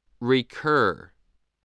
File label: "recur", spelling voice